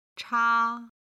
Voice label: "cha", Chinese